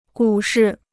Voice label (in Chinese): "股市", Chinese